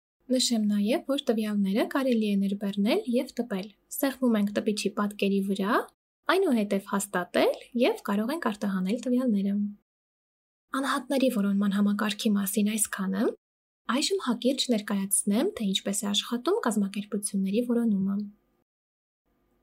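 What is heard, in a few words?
Armenian Narration; GoLocalise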